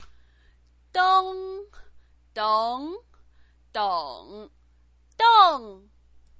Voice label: "deng", Chinese pinyin